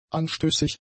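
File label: "anstößig", German; adjectives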